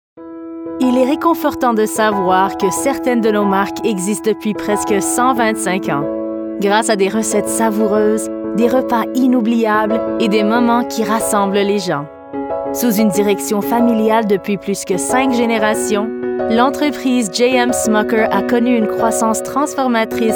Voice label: Female French Canadian